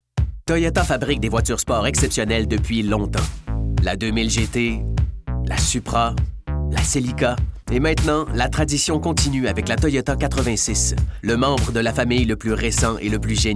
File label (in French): francais quebecois naturel narration animateur; toyota projet 86; chroniqueur